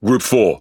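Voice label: Speech Pilots Bomber, British English; World Of Warships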